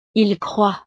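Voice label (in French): "ils croient", French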